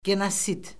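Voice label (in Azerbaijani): "genosid", Azerbaijani; Orfoqrafiya Lüğəti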